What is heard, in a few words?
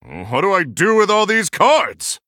Male Human ERROR HAND FULL